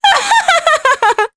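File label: Vox Happy, Japanese; Maria; kingsraid; jp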